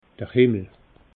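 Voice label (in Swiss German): "himmel", Alsatian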